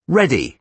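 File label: "ready", British English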